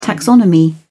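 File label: "taxonomy", British English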